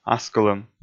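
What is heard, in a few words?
"аскылӧм", Komi, male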